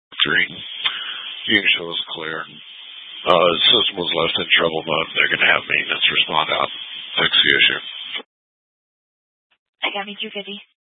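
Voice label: Fire Dispatch, scanner audio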